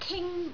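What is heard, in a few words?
"king1", Cantonese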